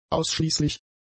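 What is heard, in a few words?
"ausschließlich", German; adjectives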